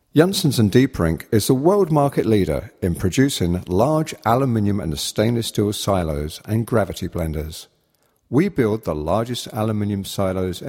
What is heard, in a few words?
corporate voice over